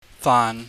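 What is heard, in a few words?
"faan", Cantonese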